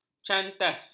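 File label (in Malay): "cantas", Malay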